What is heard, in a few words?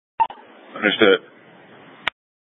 Light Rail Ops, scanner; Light Rail Ops 1